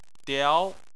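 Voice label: "diao" second tone, Chinese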